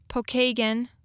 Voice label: "Pokagon", Michigan pronunciation